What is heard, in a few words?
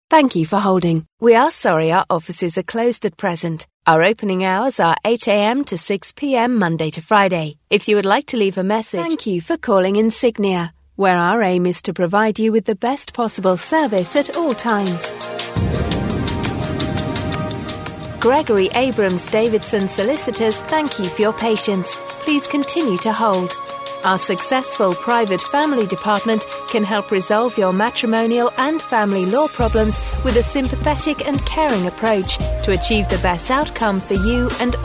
female